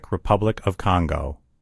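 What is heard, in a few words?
"republic of congo", English; gcompris; geography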